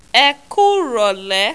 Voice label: "E kuurole", Yoruba

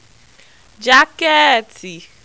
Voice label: "jakeeti", Yoruba

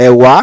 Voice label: "Ewa", Yoruba

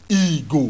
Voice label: "igo", Yoruba; CONSONANTS; guguru-gele-igo

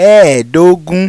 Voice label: "Eedogun", Yoruba

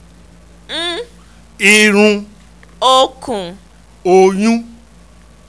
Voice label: "unununun", Yoruba, nasal vowels; irun-okun-oyun

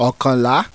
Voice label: "Okanla", Yoruba

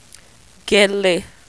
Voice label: "Gele", Yoruba

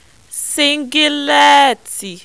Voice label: "singileeti", Yoruba

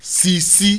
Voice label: "sisi", Yoruba; CONSONANTS; salubata-sisi-ase

